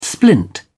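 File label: "splint", British English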